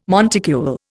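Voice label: "monticule", Indian English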